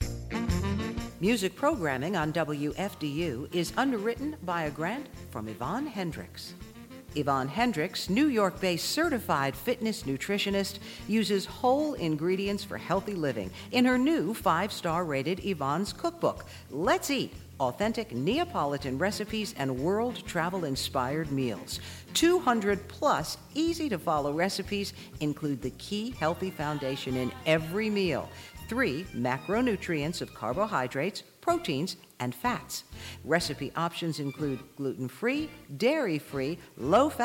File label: Radio Spot; WFDU